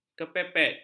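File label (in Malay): "kepepet", Malay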